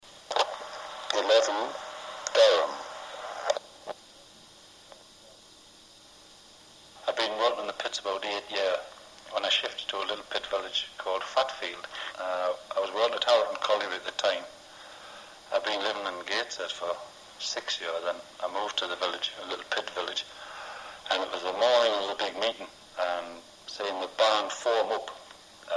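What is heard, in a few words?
North East, English Varieties; Durham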